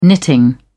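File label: "knitting", English, British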